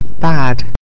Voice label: "bad", English